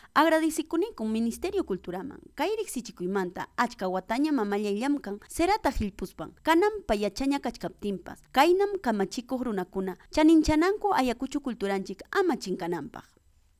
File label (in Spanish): VOZ DOBLADA HIJA AGRADECIENDO, QUECHUA; ayacucho; CERERIA